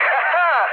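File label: trick, Czech, mood med; War Thunder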